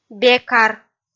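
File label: "бекар", Komi-Zyrian, female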